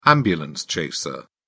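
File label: "ambulance chaser", English, British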